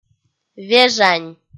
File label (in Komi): "вежань" kpv f